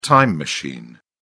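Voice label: "time machine", British English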